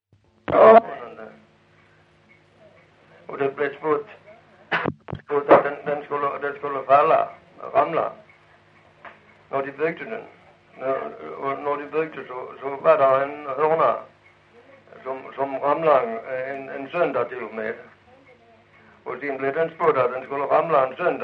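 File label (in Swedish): Lilla Harrie Skåne, dialekter; Folkminnen; Svenska sagor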